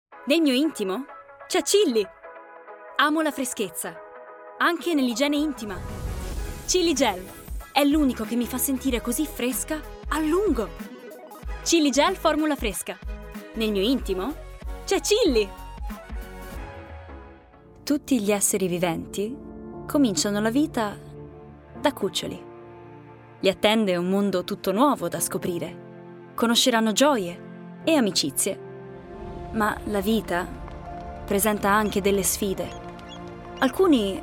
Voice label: Italian